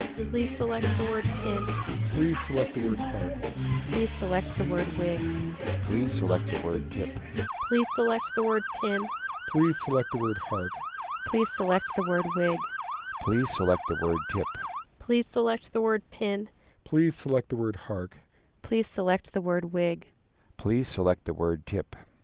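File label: opus narrowband 13200 all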